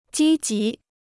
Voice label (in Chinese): "积极", Chinese